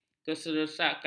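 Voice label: "kesesakan", Malay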